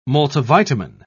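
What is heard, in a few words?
"multivitamin", British English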